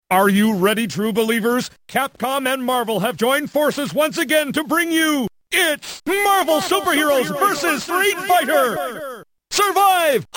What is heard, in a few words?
faq announcer